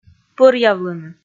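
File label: "пӧръявлыны", Komi, female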